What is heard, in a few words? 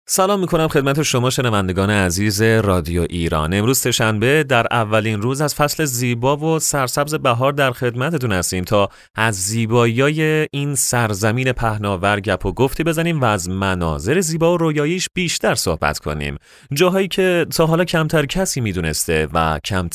Farsi Radio Podcast, Male, Young, Adult, Farsi (Persian)